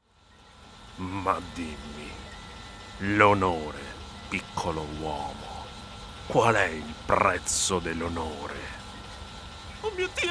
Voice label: video game Italian